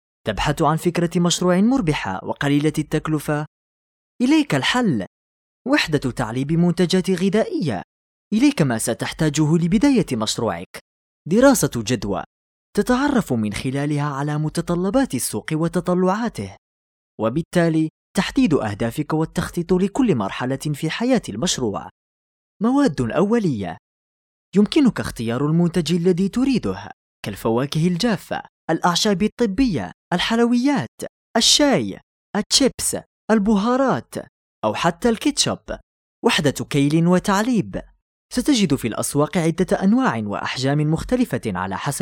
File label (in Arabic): تعليق صوتي; تعليق صوتي لفكرة مشروع تعليب; وحدة تعليب منتجات غذائية